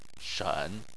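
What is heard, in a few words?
"shen" tone 3, Chinese; Chinese Surnames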